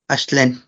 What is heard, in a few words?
"de nada", "axtlen", "you are welcome"